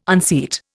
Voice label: "unseat", Indian